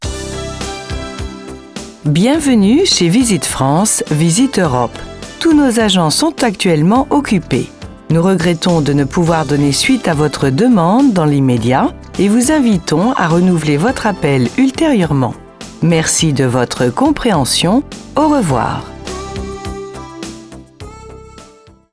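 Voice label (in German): Sprecherdemo französisch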